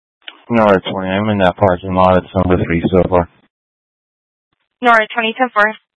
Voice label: Mutual Aid-Intersystem Interop B, scanner